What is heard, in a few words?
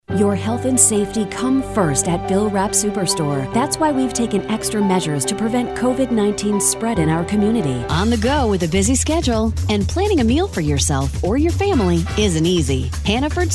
Commercial; female voice over